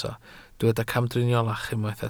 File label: "camdriniolach", Welsh